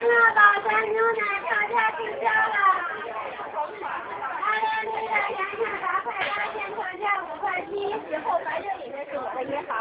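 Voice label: milk, Beijing Street Sounds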